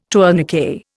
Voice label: "tourniquet", Indian